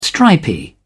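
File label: "stripy", British English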